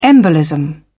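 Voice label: "embolism", British English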